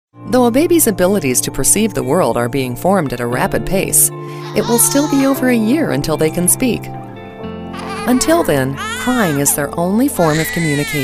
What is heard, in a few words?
E-Learning Narration